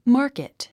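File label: "market", English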